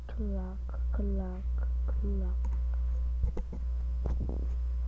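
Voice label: "clock", spoken word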